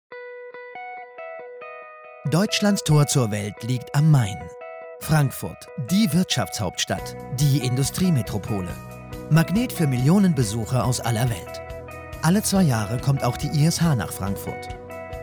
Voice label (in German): industriefilm off; vaillant